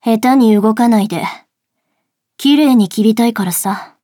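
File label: start, Japanese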